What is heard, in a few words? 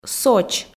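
"соч", Komi, female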